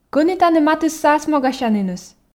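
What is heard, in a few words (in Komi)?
кӧні тані матысса асмогасяніныс, Komi, female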